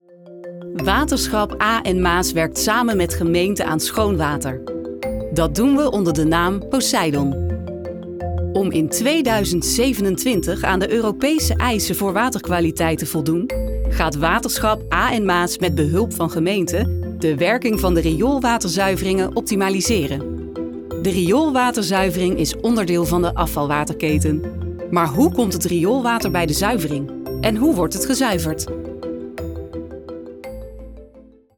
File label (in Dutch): Zakelijk vriendelijk licht Brabants, Voice over; Rioolzuivering met muziek